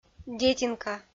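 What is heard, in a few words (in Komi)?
"детинка", Komi, female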